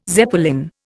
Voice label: "Zeppelin", Indian